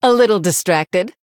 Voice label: Female Human Oops; en